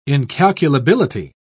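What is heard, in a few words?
"incalculability", British English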